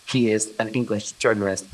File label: Voice Acting, es